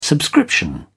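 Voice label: "subscription", British English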